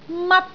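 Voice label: "mat1", Cantonese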